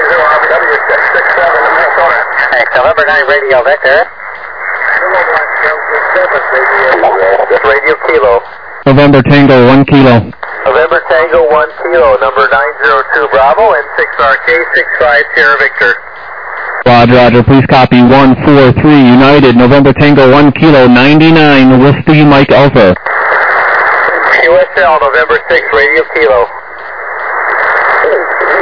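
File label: upper sideband 14MHz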